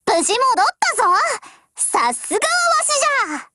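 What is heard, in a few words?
OPERATION OVER, Japanese; GF Alarm; M1895Mod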